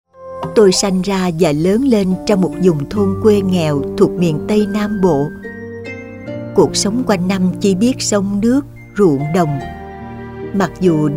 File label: trailer; Voice-off